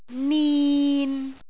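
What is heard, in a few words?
"nin6", Cantonese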